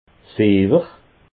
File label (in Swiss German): "fiewer", alsace